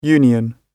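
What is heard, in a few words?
"union", British English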